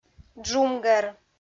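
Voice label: "джумгӧр", Komi, female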